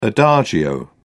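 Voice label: "adagio", English, British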